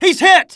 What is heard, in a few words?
panic; mohaa; dialogue